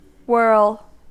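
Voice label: "whirl" English, US; pronunciations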